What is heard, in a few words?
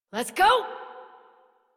Horse Sprint Adult; Warframe; Duviri